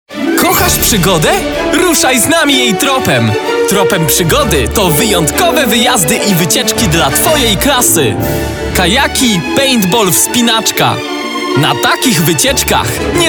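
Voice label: Polish, male, commercial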